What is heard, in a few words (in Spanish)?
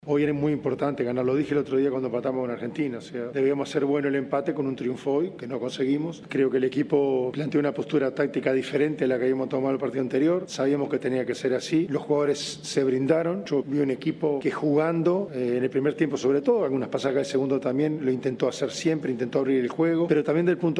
cuña